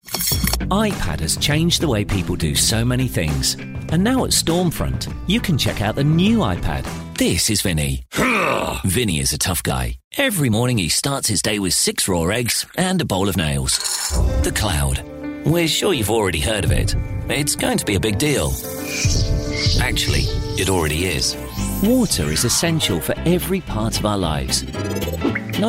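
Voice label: UK English Commercial; GoLocalise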